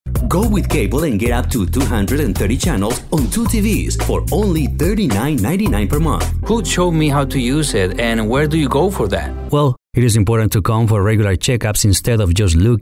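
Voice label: Spanish Latin American, male, ENGLISH WITH LATINO ACCENT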